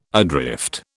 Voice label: "adrift", British